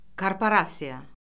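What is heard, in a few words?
"korporasiya", Azerbaijani; Orfoqrafiya Lüğəti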